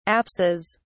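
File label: "apses", British English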